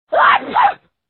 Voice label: Bless You Sms Tone